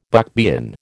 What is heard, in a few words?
"buckbean", American